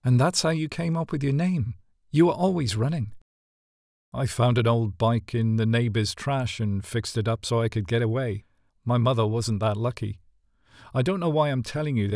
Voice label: Book Narration